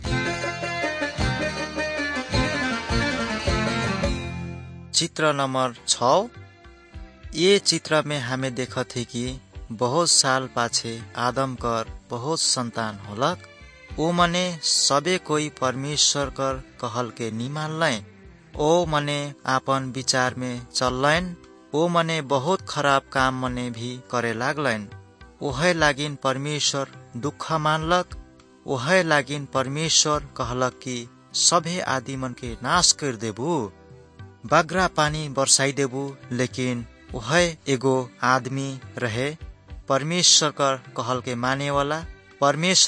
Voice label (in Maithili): good news maithili, nt drama; wycliffe bible translators inc; kisan